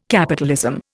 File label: "capitalism", Indian